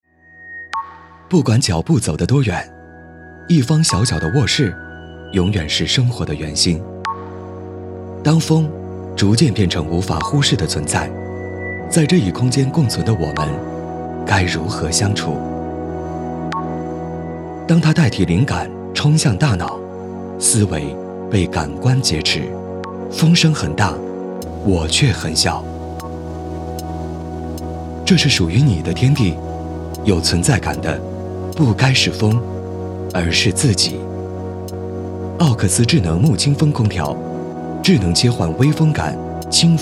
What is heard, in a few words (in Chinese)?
空气感广告